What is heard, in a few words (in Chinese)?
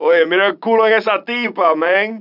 拉丁裔海滩中年男性; 罪恶都市